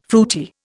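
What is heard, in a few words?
"fruity", Indian English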